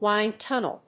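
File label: "wind tunnel", English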